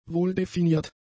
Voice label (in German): "wohldefiniert", German; adjectives